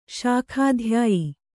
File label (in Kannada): "ಶಾಖಾಧ್ಯಾಯಿ", Kannada